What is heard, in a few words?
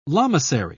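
"lamasery", British English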